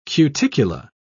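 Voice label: "cuticula", British English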